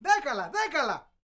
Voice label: MEXICO VOICE, MEXICAN; ILIO; Vocal Planet VOL-5